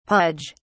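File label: "pudge", British English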